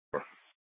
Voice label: Police South Dispatch; Scttsdle